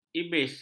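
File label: "ibis", Malay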